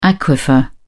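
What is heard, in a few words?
"aquifer", British English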